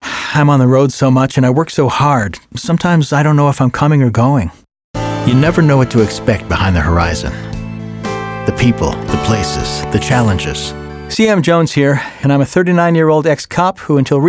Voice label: Regular Guy